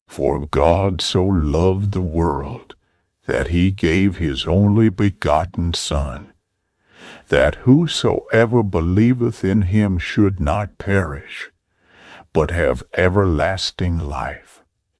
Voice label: john 3 16, fine tuning xtts v2 for more natural bible narration